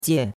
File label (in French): "jie4", chinois; Cours-de-Chinois